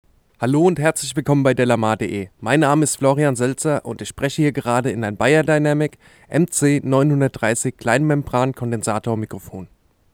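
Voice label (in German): beyerdynamic mc 930 sprache male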